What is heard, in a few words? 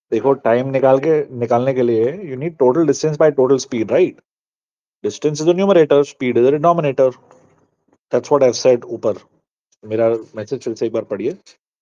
voice message